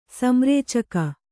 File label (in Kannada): "ಸಂರೇಚಕ", Kannada